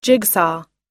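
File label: "jigsaw", US English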